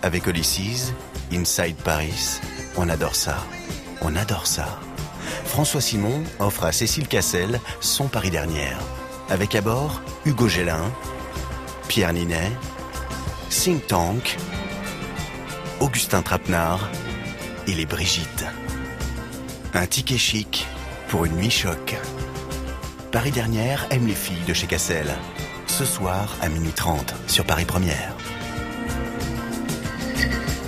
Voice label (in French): Voix charme; Paris Dernière